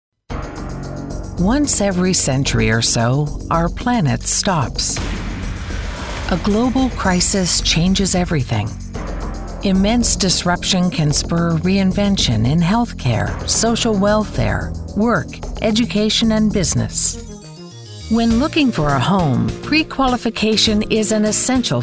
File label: NARRATION E-LEARNING